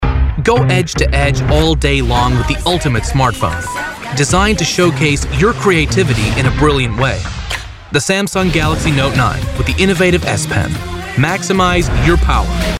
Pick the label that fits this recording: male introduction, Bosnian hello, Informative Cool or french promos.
Informative Cool